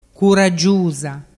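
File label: "curaggiusa", Vernazzese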